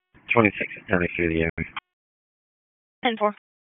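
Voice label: Sheriff's Office Dispatch; WSO DISP 1; wake; p25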